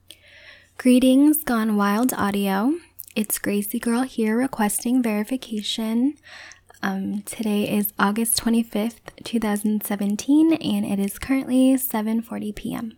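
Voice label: Female verification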